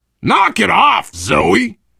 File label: friendly fire, biker, voice; left4dead; survivor; Source engine